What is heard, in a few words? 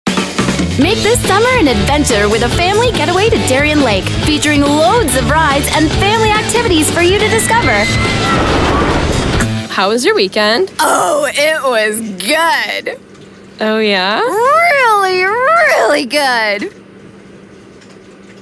female english canadian neutral north american accent commercial compilation